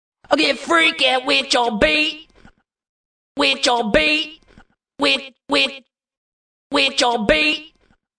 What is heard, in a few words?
Vocal, Funk vocal loop sample.; Loop Vocal Get FreakyFull 110bpm